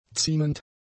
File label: "ziemend", German; adjectives